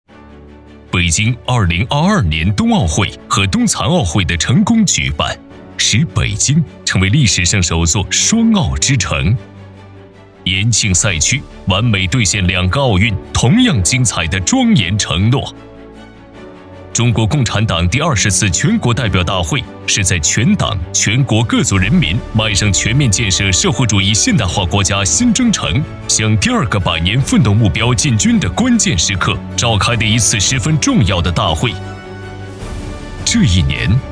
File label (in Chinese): 专题汇报类; 北京延庆区老干部局